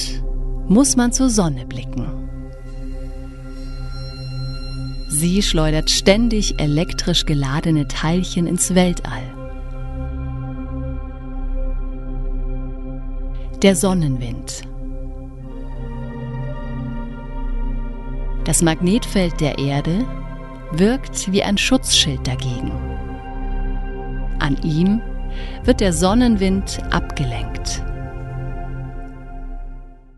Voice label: Doku Polarlichter, German; Demo Dokumentation

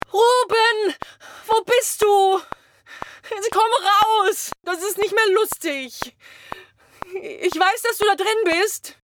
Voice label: Scared

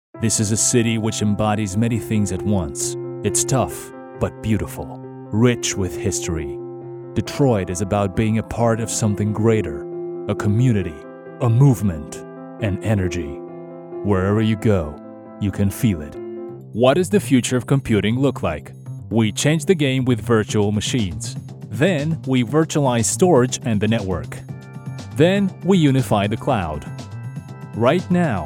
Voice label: corporate us

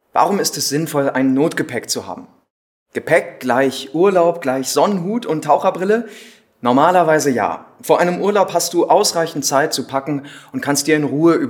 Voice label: Social Spot, German, Commercial (Werbung); Social Spot - BBK Notgepäck; Audioguide

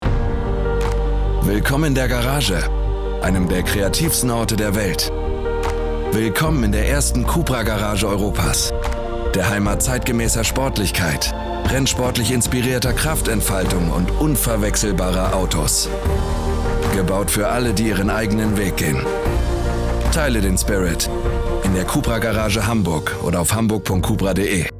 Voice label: Radio; CUPRA Garage HH

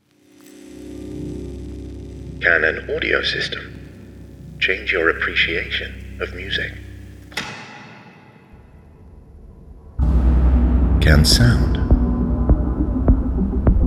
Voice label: Brand Film; Burmester

